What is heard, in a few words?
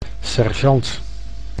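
"sergeant", Dutch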